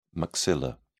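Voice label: "maxilla", British English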